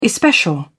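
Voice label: "especial", British English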